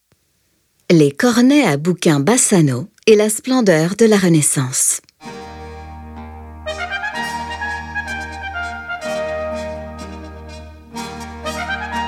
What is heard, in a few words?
audioguia french; delamusica